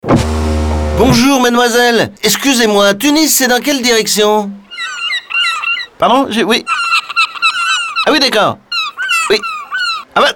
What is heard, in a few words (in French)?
signature invitante souriante; SNCM Maghreb